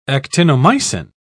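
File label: "actinomycin", British English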